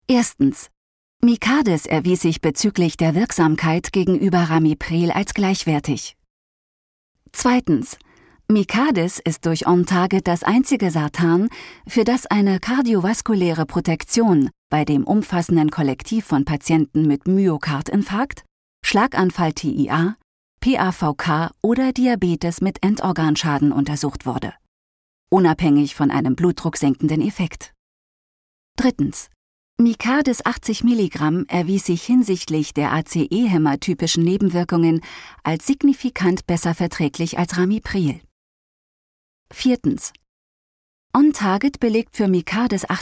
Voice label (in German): elearning, deutsch frauen